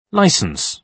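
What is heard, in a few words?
"licence", British English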